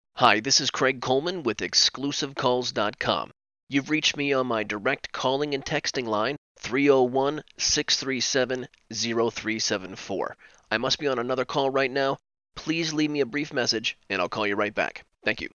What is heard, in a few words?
ivr greeting